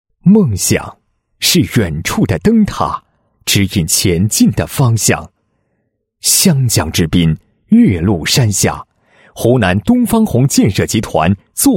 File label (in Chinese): 男 企业; 纪念湖南东方红建设集团成立50周年